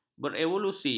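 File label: "berevolusi", Malay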